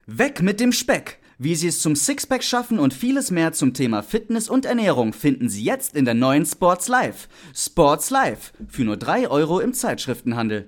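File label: German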